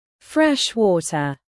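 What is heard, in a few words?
"fresh water" British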